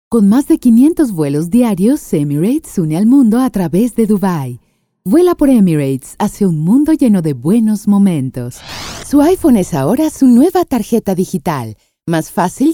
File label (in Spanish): Comercial